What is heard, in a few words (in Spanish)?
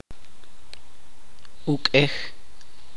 "beber", Chuj